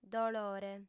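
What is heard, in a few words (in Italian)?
"dolore", lingua sarda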